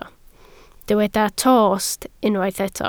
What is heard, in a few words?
"tost", Welsh